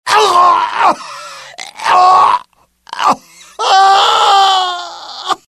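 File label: death, dialogue generic Italian; mohaa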